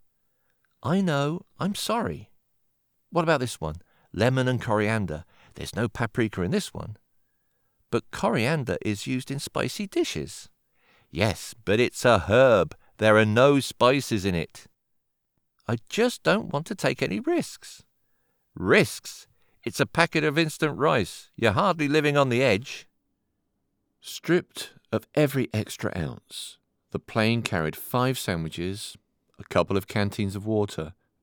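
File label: Audiobook Reel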